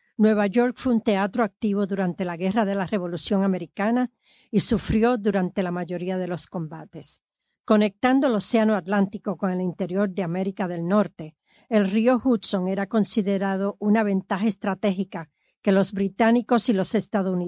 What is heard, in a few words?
Military Intro Spanish